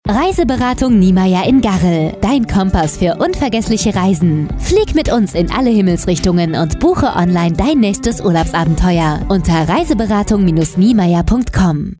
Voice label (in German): Funkspot; Reiseberatung Niemeyer GbR